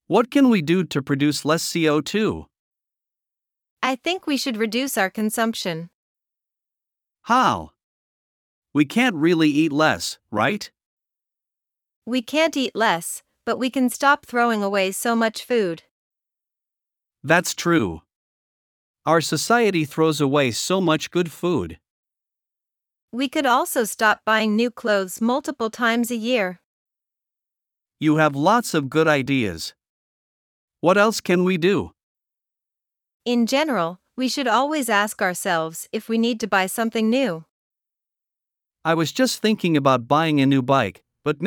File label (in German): Dialog; Konsum reduzieren; nachhaltigkeit-und-klimawandel